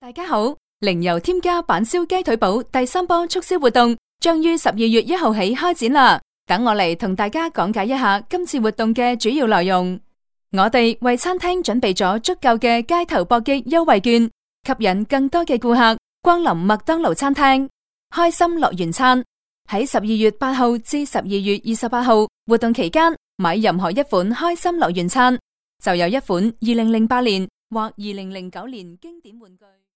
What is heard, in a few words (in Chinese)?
zh